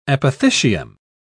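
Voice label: "apothecium", British English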